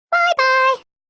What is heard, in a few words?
"bye", backwards; css